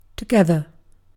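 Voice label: "together", British English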